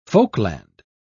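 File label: "folkland", British English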